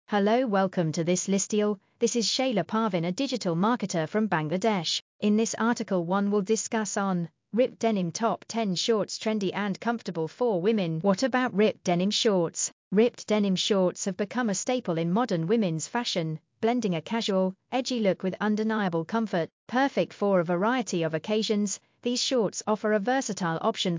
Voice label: PODCAST